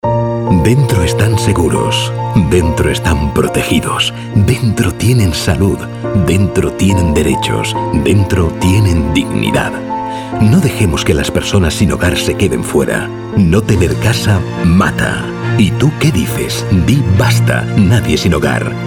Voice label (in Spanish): Cuña Radio